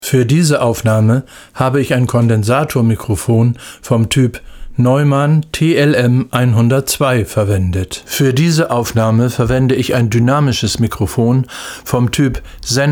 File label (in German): Neumann orig Sennh zu Neum